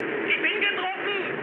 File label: aircraft destroyed, mood low, speech ge